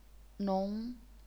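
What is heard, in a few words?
"nong", second tone, chinese pinyin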